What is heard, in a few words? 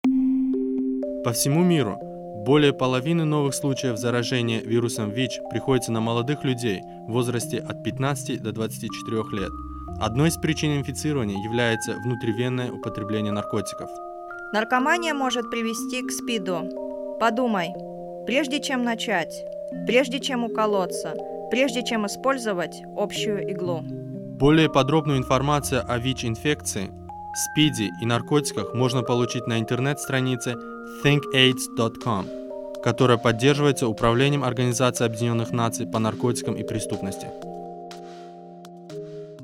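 spot russian music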